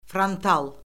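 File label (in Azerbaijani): "frontal", Azerbaijani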